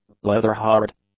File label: "leather-hard", english; adjectives